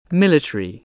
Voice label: "military", spelling